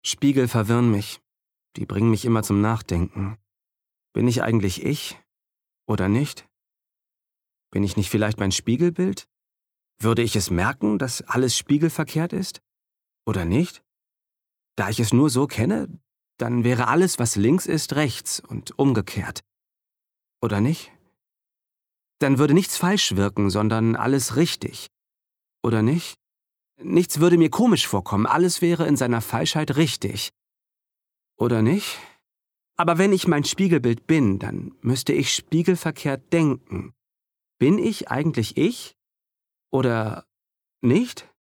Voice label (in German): Innerer Monolog